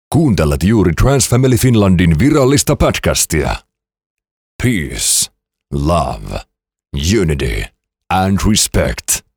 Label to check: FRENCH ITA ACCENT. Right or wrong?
Wrong